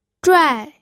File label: "zhuai4", pinyin